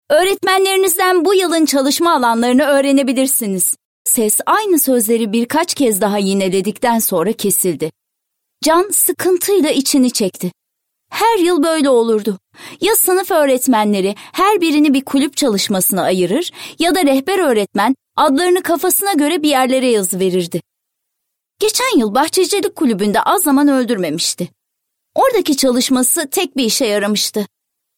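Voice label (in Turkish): kitap, seslendirme; Sesli Kitap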